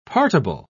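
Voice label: "partible", British English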